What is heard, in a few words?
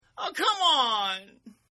Aw Cmon Male; Exclamations; Sound Effects:Voice; Partners In Rhyme